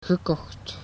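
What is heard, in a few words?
"gekocht", Dutch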